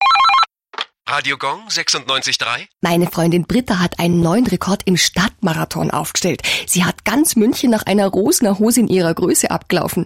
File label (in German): bayrischer Dialekt